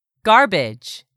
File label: "GARBAGE", english; 3000 words